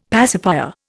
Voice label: "pacifier", Indian; Dictionary

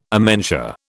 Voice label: "amentia", British

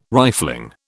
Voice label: "rifling", British

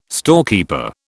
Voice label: "storekeeper", British

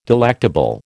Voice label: "delectable", American

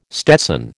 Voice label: "stetson", American

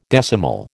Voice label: "decimal", American

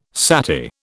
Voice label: "sati", British

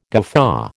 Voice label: "guffaw", American

